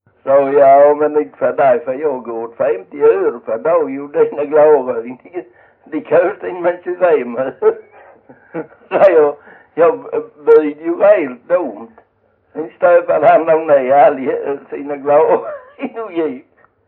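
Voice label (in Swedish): Jämshög Blekinge, Svenska sagor dialekter; Folkminnen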